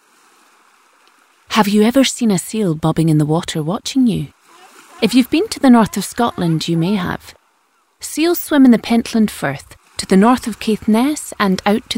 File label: narrative; Selkie